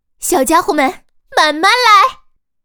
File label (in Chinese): 可以行动, BattleCry; ycrpg